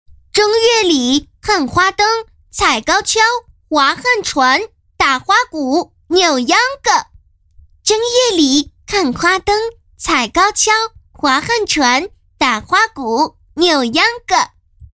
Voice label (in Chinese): 女 男童女童模仿